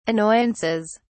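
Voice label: "annoyances", British English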